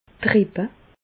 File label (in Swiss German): "rippe", Bas Rhin; medecine